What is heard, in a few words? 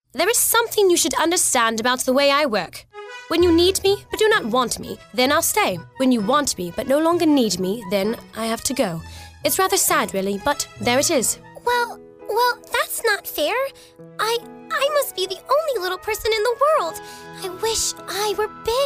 Character